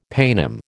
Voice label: "paynim", American